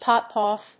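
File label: "pop off", English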